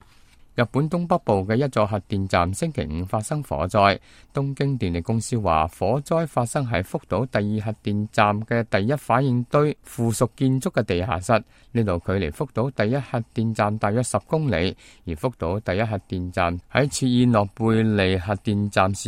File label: cantonese, news